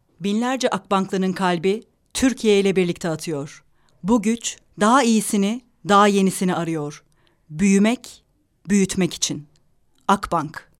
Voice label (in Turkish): reklam